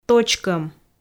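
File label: "точкӧм", Komi, female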